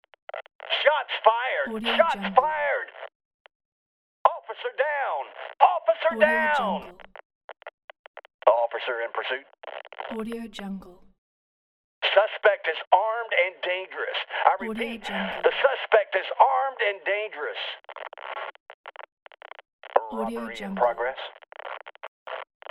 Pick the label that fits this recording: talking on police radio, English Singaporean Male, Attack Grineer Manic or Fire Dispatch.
talking on police radio